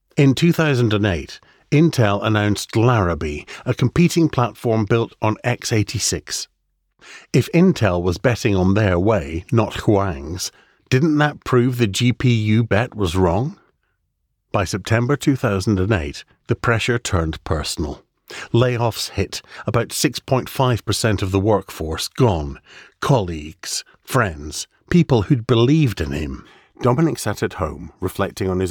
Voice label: english scottish audiobooks